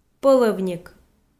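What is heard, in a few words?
"пӧлӧвник", Komi, female; kpv audio dict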